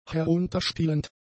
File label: "herunterspielend", German; adjectives